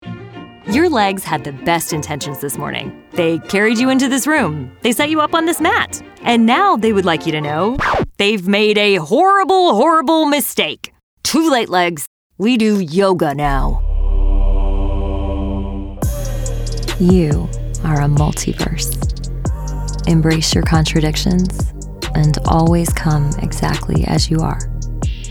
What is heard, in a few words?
commercial